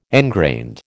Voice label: "engrained", American